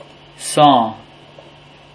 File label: "saw", English